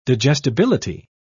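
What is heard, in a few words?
"digestibility", en, British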